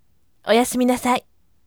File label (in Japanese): voice oyasuminasai